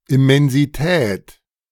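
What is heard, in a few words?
"Immensität", German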